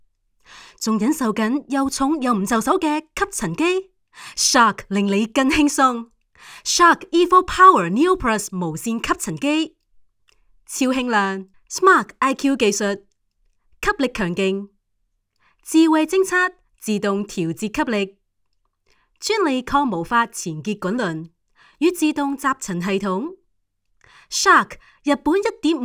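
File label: Cantonese HK, female, narration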